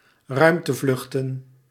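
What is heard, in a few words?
"ruimtevluchten", Dutch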